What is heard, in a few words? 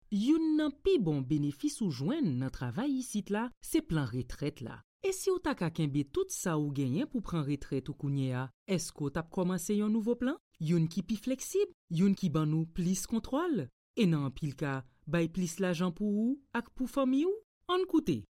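Haitian Creole, Educational; GoLocalise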